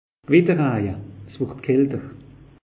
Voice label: "gewitter raje", alsace; meteo

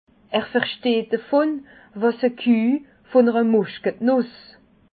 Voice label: "versteht", Alsace

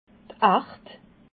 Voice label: "ard", alsace

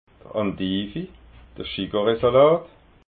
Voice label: "andivi", alsace

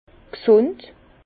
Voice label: "gsund", Bas Rhin; vin; sain

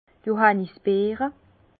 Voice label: "johannisbeere", Bas Rhin; vin; groseille

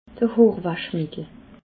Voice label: "hoorwaschmittel", alsace

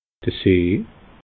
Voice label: "see", alsace

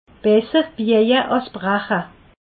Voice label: "bieje", alsace

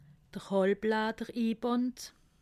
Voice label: "halbladderinband", alsace

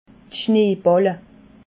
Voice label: "schneebàlle", alsace

